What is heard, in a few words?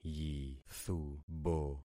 "yithubo", concat syllables; Affixing experiment